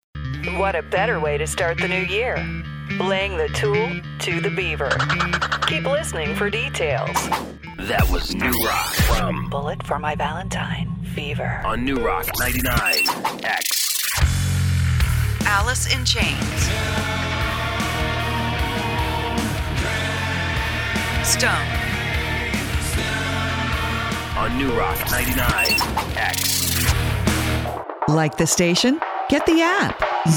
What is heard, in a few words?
imaging demo